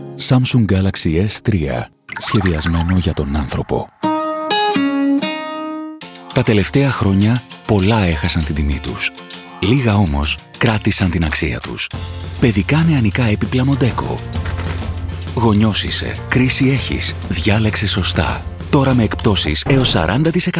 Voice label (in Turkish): Yunanca Erkek, yunanca seslendirme; erkek sesler